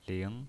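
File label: "ling", pinyin, Mandarin Chinese, second tone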